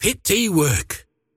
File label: last hit pain; dota; largo